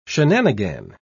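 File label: "shenanigan", British English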